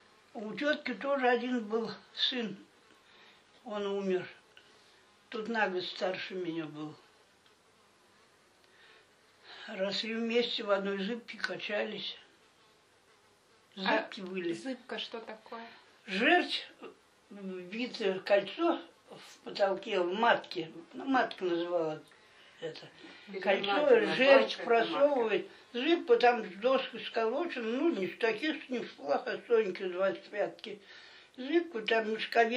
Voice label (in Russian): среднеобский говор; зыбка